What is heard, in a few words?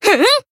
Voice attack jp